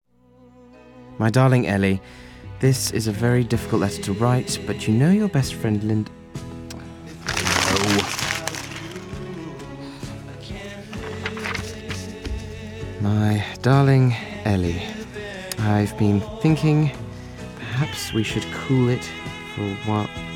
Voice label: Commercial

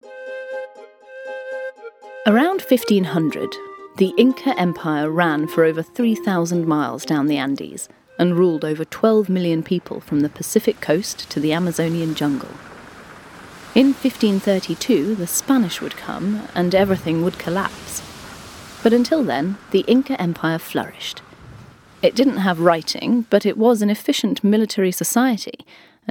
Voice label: Narrative

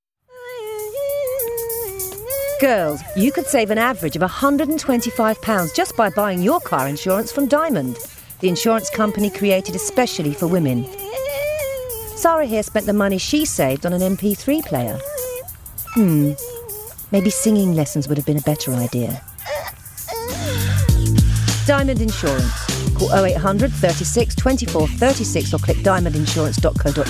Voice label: Commercial

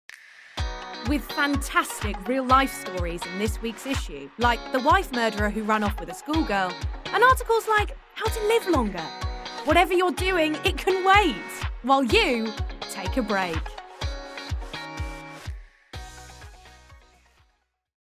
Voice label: Commercial voice reel

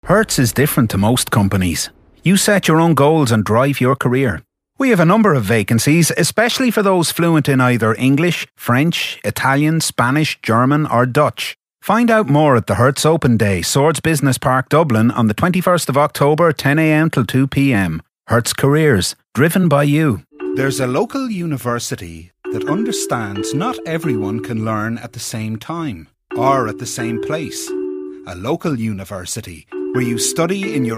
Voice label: Commercial

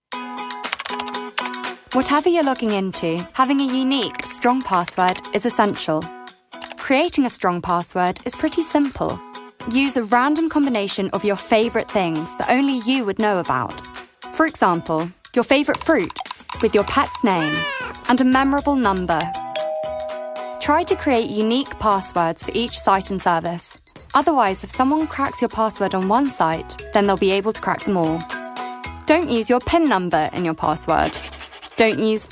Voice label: Narrative

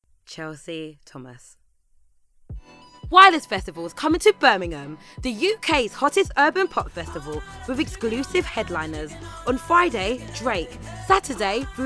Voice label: Commercial

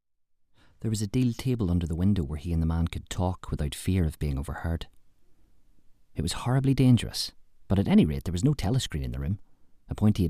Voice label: Narrative

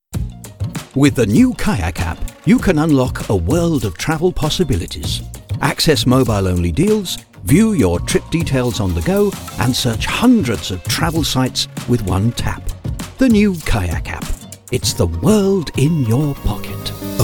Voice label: Commercial

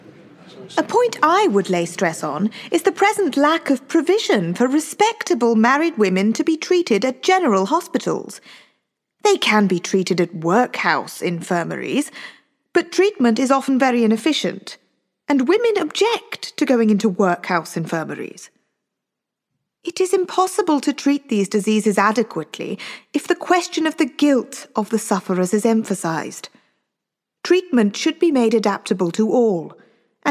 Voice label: Drama

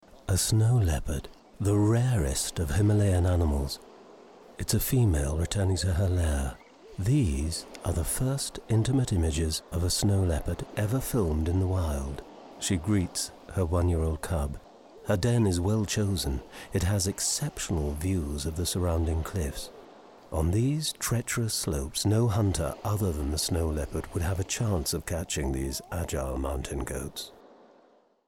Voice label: Documentary

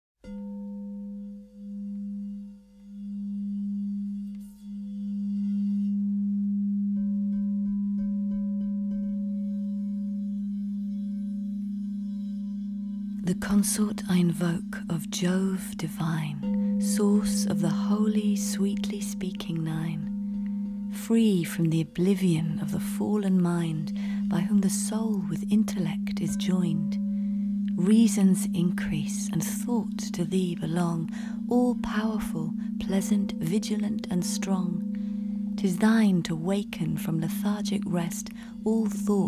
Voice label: Drama

